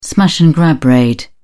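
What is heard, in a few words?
"smash and grab raid", English, British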